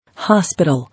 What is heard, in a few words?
"Hospital", English